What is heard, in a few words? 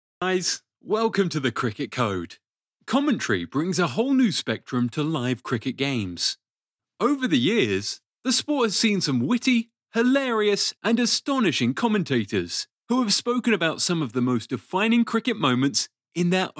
English (UK), Male